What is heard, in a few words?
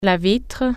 "window", French